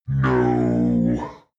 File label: demon dark voice thick speak "no"